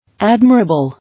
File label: "Admirable", English